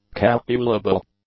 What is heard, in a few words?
"calculable", English; adjectives